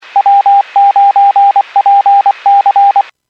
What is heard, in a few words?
Skywarn 146.67; Stevens Point